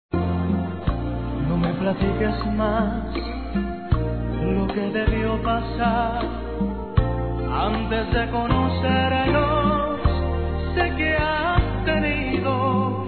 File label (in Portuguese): ANIV. ROMANTICO M